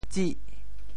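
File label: "tsih8", Teochew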